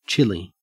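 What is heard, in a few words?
"chilly", Australian English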